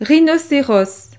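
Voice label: "rhinoceros", French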